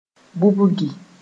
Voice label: "bubgi", moore

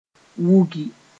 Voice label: "wũgi", moore

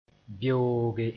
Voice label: "beooge", moore

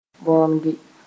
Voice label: "bõoge", moore

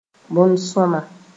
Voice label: "bõn-sõama", moore

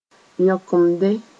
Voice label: "yõkemde", moore

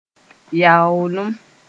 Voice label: "yaoolem", moore

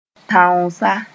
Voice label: "tãoosa", moore; hunter

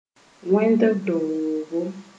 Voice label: "wẽnd doogo", Moore

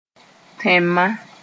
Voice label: "tẽn-ma", Moore

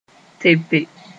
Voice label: "tepe", moore

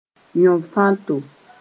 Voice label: "yõ-fãto", moore

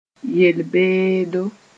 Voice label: "yel-beedo", moore

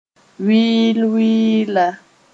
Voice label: "wɩɩlwɩɩla", moore

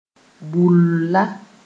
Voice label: "bulla", moore

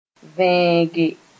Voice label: "vẽege", moore

